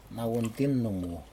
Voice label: fish bowels, Aneityum; nauintin numu

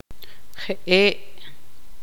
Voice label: tzutujil; ellos

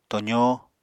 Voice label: "tozó", Apatani

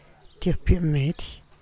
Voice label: "tiop yu’ medy", Teotitlán del Valle Zapotec; two centavos

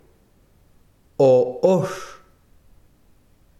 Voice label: besiro diente, chiquitano

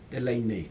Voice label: telainai, they cry now, Aneityum

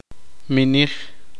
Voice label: poqomchi; empujar

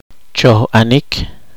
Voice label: poqomchi; aflojar

